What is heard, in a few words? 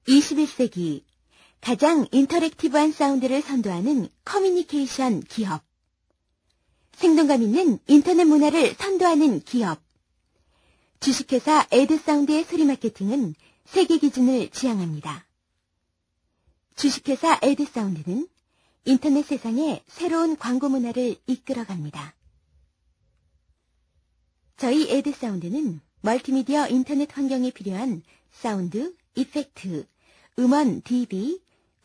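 Korean female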